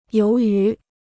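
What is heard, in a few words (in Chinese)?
"由于", Chinese; yóu yú; en raison de; à cause de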